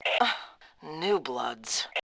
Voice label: "when", Fem2; Tribes2

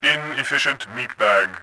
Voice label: obnoxious, voice; Tribes2

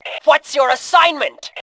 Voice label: bot, Fem; voice; Tribes2

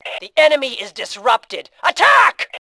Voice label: disarray, Fem; voice

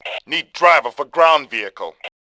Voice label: Male; Tribes2

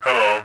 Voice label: "hi", voice; Tribes2

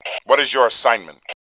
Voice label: bot, Male; voice; Tribes2

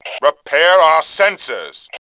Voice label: Male2; Tribes2

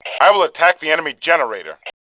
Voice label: generator, Male; voice; Tribes2

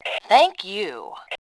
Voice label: "thanks", Fem2; Tribes2

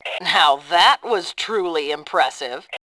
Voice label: sarcasm, voice Fem; Tribes2

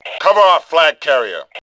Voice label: carrier, Male; voice; Tribes2

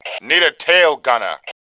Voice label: need tailgunner, Male; Tribes2

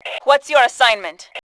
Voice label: bot, Fem; voice; Tribes2

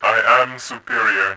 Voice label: brag, voice; Tribes2

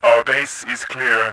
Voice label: clear, voice; Tribes2

